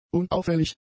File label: "unauffällig", German; adjectives